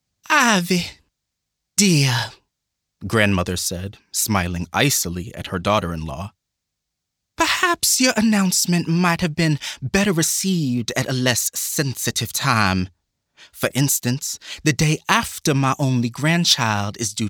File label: AUDIOBOOK NARRATION